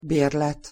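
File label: "berlet", Hungarian pronunciation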